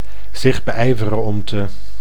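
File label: "zich beijveren om te", Dutch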